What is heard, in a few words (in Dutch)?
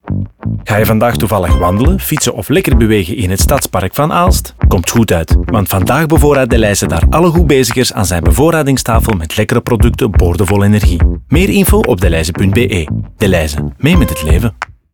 radio, nl; stadspark aalst